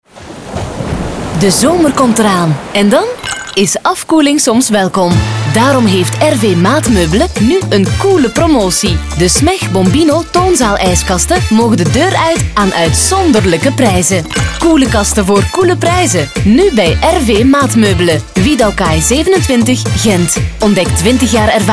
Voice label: commercial; rv maatmeubelen; Breakout